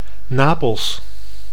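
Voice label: "Napels", Dutch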